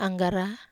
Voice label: "anggara", Wamesa